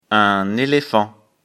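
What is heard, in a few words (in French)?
"zelephant", French; afrikalan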